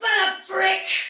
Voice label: Damage Soldier Female, Battle Barks; Dialog; en; Sinecure

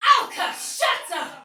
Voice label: Attack Heavy Tech, Soldier Female, Battle; Dialog; Barks; Sinecure

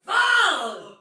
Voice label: Attack Normal Soldier Female, Battle Barks; Dialog; Sinecure

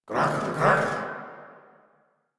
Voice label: Banter; Warframe; Clem; en

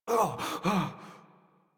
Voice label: Operator Voice; Operator Mission; Generic Land

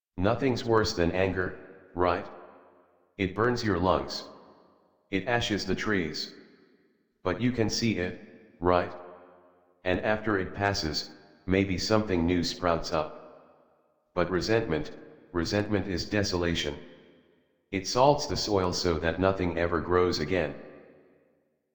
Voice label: Envy Adult, en, Dialog; Warframe; Duviri Paradox Quest

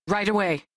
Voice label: Crew Accept, Female; Dialog; en; Warframe; Railjack Crew; New Loka

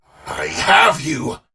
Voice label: Enemy Spot, Barks Battle; Warframe; Dax; Dax Knight

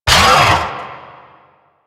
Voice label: Necramech Melee Pain, Barks; Warframe

